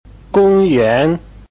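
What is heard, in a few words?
"gong yuan", Chinese, tones 1 and 2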